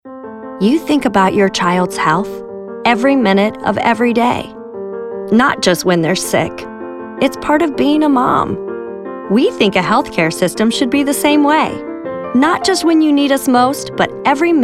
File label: Childrens Health spot